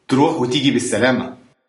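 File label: bon voyage, Egyptian Arabic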